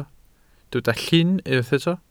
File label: "llun", Welsh